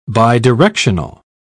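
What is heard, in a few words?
"bidirectional", English, British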